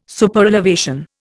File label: "Superelevation", Indian